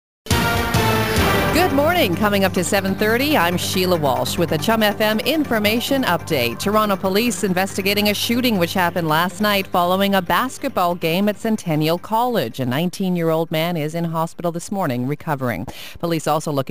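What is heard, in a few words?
News, Voice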